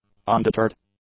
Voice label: "undeterred", english; adjectives